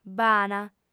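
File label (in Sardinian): "b'ant", female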